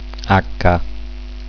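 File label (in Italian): "acca", Italian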